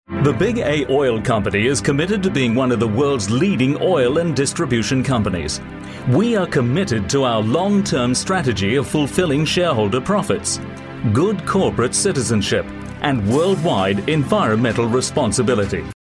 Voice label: ENGLISH (AU) Male

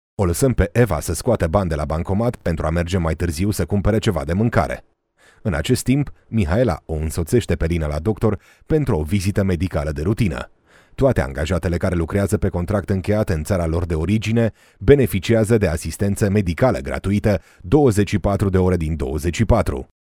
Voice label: Romanian Male

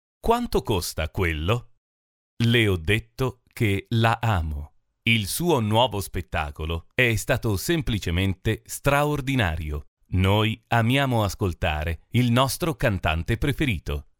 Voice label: Italian Male

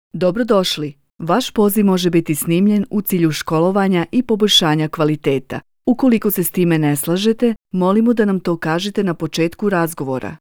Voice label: Call Center message, BHS

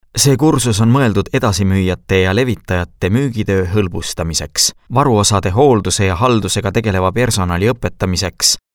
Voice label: ESTONIAN eLearning Male

